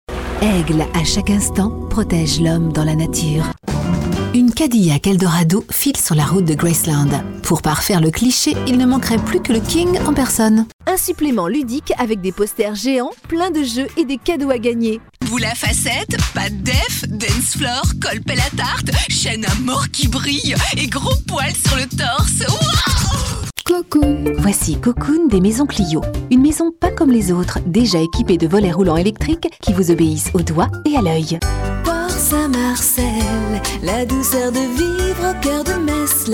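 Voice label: French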